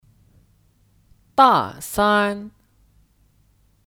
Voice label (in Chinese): "大三", Dà sān